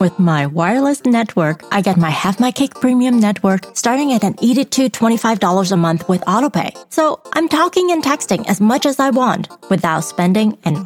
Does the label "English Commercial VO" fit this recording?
Yes